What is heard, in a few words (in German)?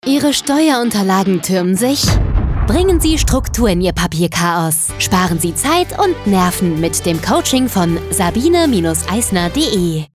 Funkspot